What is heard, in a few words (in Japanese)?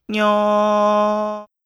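"にょ", Japanese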